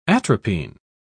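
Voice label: "atropine", English, British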